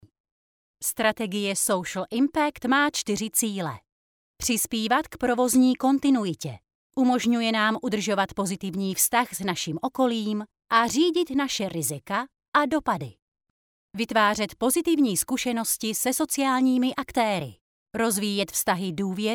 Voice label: czech voiceover locutora checa